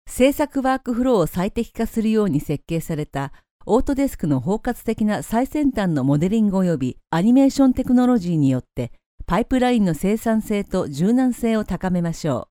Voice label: japanese female, Bright Versatile Japanese Female Voiceover